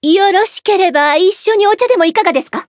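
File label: start, Japanese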